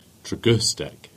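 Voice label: "Tregustick" Cornish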